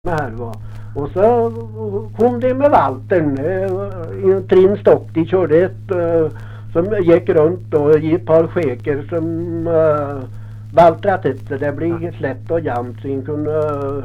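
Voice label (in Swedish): Lerdal Dalsland, dialekter, svenska sagor; Folkminnen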